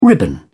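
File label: "ribbon", British English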